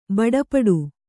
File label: "ಬಡಪಟ್ಟು", kannada